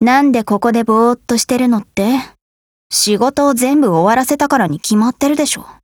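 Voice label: DIALOGUE, Japanese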